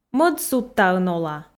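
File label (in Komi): мӧд судтаын ола, Komi, female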